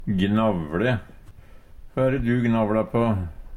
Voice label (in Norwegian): "gnavLe", Numedalsmål; Hø e de du gnavla på no?